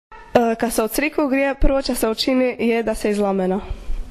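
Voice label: "izlamenati se", Croatian dialect; prekrižiti se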